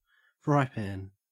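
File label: "frypan", Australian English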